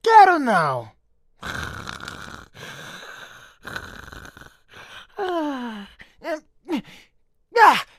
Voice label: voice portugues brasileiro, brazilian portuguese; fizz; league of legends

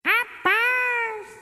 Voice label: vinheta rapaiz; ratinho

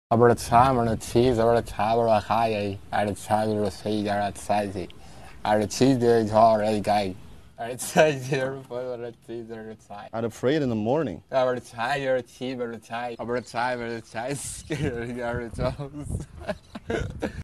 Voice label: ingles fluente

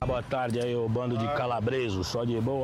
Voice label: boa tarde calabreso

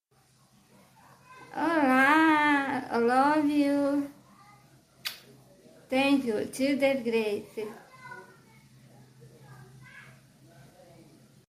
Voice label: falando ingles

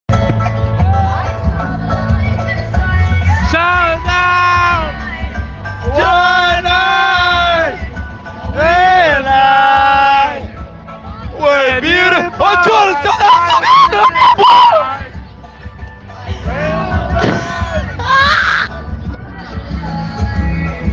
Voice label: voz fina

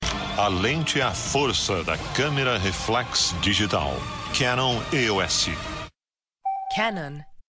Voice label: comercial da canon tecnologia nas cameras dslr

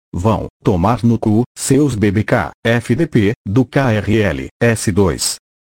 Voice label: voz do pai

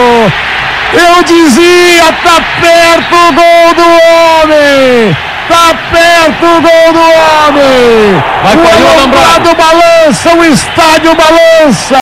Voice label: narra gol; luciano do vale; corinthiano; gol de ronaldo

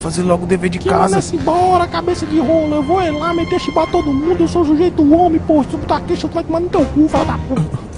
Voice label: dublagem engracada; dragonball

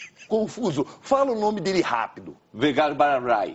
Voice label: fala rapido

